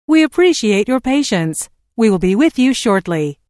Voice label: Telephone prompt: We appreciate your patience we will be with you shortly, Recorded Voice; Pre-recorded telephone